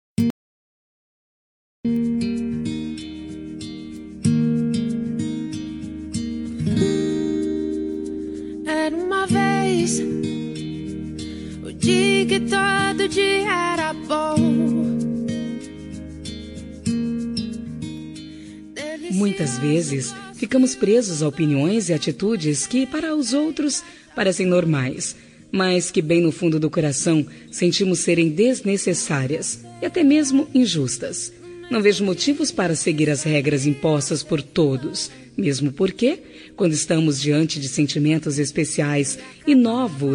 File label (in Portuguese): quer namorar fem, Telemensagem de Pedido – Voz Feminina